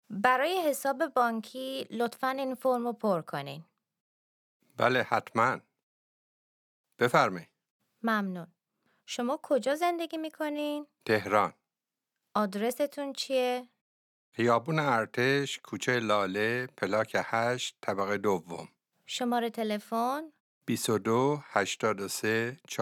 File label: Dialogue lesson Farsi